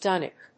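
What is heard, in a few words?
"dunnock", English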